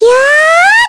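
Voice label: Vox Casting, Korean; Mirianne; kingsraid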